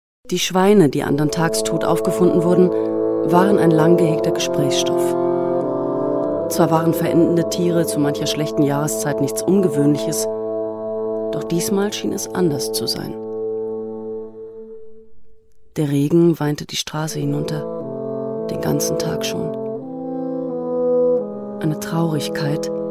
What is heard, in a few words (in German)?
hoerspiel woelfe